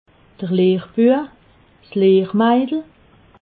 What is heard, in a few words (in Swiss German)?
"lehrbue", alsace